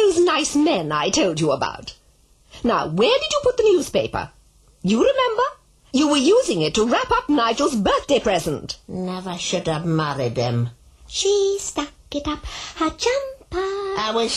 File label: girl mum gran, readings